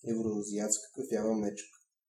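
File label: european bear, Bulgarian; tuxpaint-stamps; animals; mammals; bears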